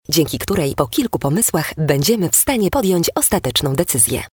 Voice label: lektor cz; prezentacja